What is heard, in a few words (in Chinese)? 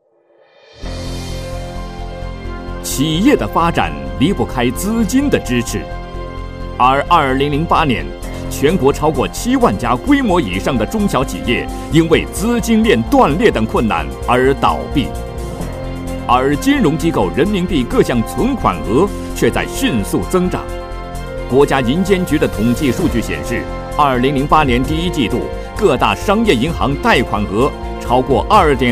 男 银行 加感情, 专题; 包商银行